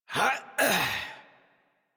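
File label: horse mount adult, English; Warframe; Duviri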